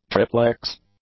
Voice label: "triplex", English; adjectives

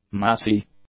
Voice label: "massy", English; adjectives

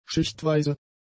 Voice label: "schichtweise", German; adjectives

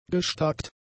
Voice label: "gestakt", German; adjectives

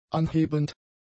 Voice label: "anhebend", German; adjectives

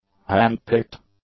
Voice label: "hand-picked", English; adjectives

